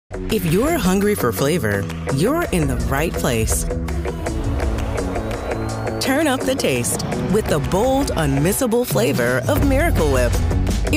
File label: Voices Commercial, voiceover